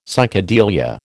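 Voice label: "psychedelia", American English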